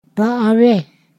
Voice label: I don't know, Ponosakan

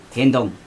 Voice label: "hIntoŋ", Chug, neck

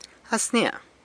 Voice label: "As nia", San Lucas Quiavini; Hey!

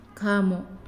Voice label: "Cloud", Naasioi

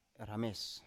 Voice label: "rames", Biak

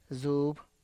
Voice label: "zup", stand plants

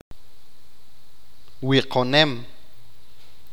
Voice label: To decorate, achi

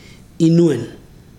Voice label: "bird", Ibibio

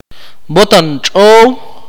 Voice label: "rat", Akateko

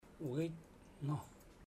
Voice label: he goes, chamacoco; Bahia Negra

